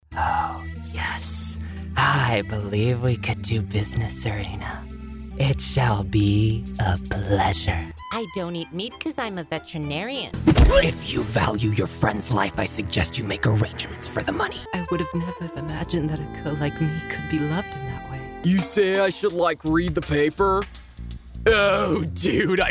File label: Animation Demo